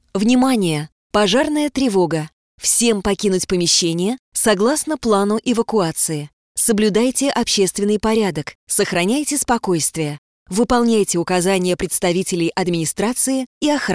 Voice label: Pozharnaya trevoga golosovoe obyavlenie; Пожарная тревога; профессиональных дикторов для записи голосовых объявлений

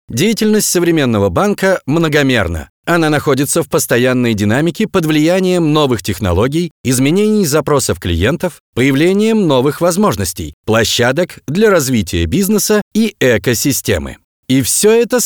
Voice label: informacionno; профессиональных дикторов для озвучивания видео

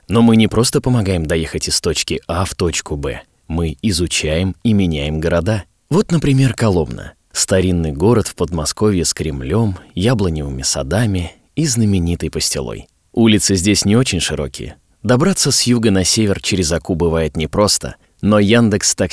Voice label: dushevno; профессиональных дикторов для озвучивания видео

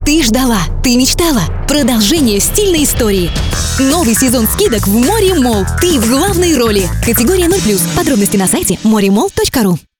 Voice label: audiorolik; актерских голосов для озвучки видео

